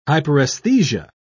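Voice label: "hyperaesthesia", British English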